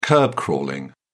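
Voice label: "kerb crawling", British English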